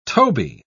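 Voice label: "toby", British English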